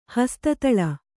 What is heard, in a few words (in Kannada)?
"ಹಸ್ತತಲ", Kannada